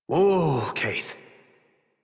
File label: Horse Stop Walk Adult; Warframe; Duviri